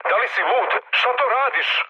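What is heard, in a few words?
Serbian player killed ally mood med; War Thunder; speech srb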